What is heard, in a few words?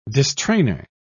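"distrainor", British English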